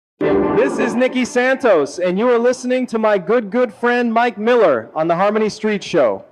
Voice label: promo